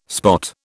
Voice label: "spot", British

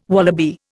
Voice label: "wallaby", Indian

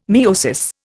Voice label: "miosis", Indian

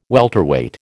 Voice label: "welterweight", American

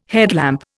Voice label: "headlamp", Indian

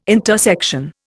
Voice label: "intersection", Indian

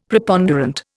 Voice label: "Preponderant", Indian; Dictionary

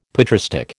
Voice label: "patristic", American

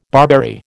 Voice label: "barberry", American

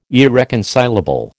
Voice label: "irreconcilable", American

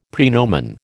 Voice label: "praenomen", American

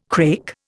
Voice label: "crake", Indian

